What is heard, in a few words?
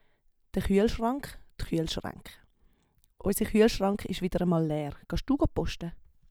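"de Chueelschrank", Swiss German vocabulary; Wortschatz Kapitel 5; Kapitel 5 Zusatzwortschatz 1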